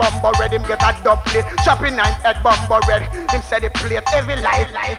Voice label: Maximum Ragga, Vocals; Jungle; Blu Mar Ten - Jungle Jungle - 1989 to 1999 Samplepack